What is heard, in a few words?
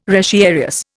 "retiarius", Indian